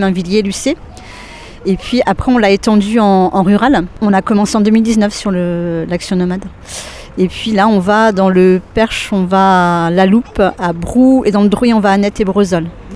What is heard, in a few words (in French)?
bus femme